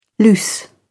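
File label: "loose", British English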